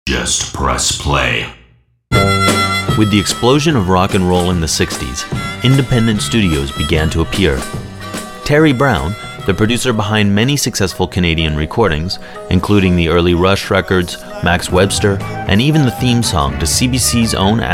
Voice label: voice reel general